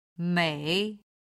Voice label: "mei3", Chinese